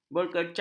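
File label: "berkecah", Malay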